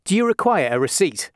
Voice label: req Rec, announcements male

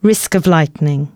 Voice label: announcements, female

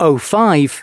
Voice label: announcements male

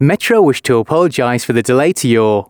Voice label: announcements, male

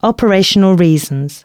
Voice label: announcements, female

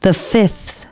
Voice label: announcements female

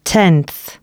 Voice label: announcements female

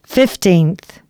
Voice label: announcements, female

